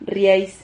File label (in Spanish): "rriais", Silabas